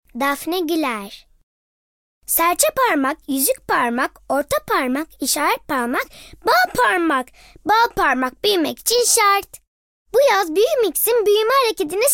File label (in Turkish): Reklam